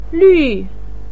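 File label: "lü", Mandarin pinyin, fourth tone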